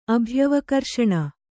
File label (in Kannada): "ಅಭ್ಯವಕರ್ಷಣ", kannada